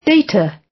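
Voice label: "data" British English; Technology; Công nghệ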